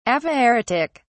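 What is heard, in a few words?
"aphaeretic", British English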